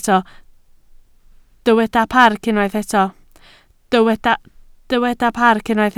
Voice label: "parc", Welsh

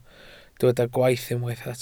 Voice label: "gwaith" Welsh

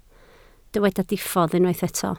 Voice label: "diffodd", Welsh

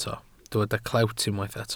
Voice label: "clewt" Welsh

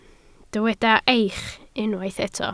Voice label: "eich", Welsh

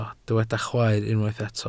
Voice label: "chwaer", Welsh

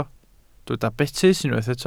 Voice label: "betys", Welsh

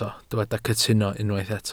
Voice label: "cytuno", Welsh

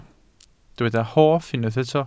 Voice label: "hoff", Welsh

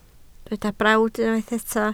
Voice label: "brawd", Welsh

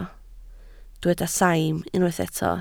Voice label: "saim", Welsh

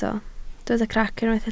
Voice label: "crac", Welsh

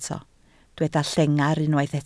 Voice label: "llengar", Welsh

